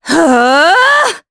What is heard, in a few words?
Vox Casting, jp; Maria; kingsraid